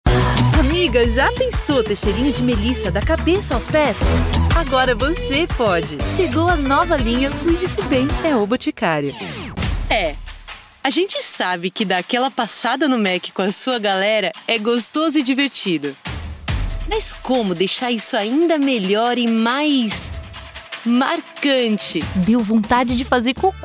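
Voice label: Brazilian Portuguese, General; voice demos; GoLocalise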